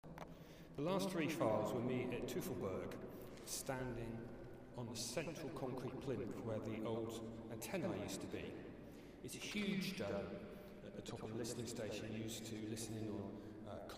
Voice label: voice distortions and some footst; description from centre illustrating voice distortions and some footsteps; Trevor Cox; SoundBook